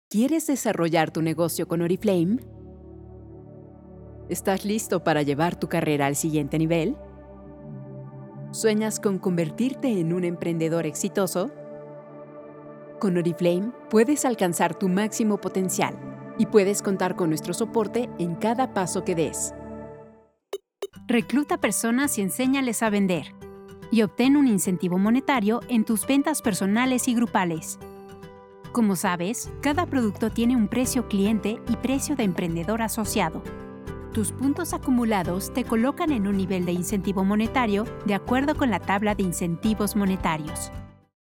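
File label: Latin American Spanish, Elearning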